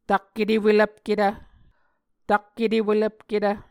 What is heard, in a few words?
"tak etewelvpketv", Muscogee